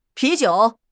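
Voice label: "pi2 jiu3", Mandarin Chinese; HSK3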